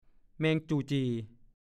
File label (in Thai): "แมงคาม", ภาษาโคราช; แมงกว่าง กว่าง